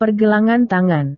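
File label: "pergelangan tangan", Indonesian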